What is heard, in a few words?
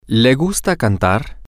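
Spanish, male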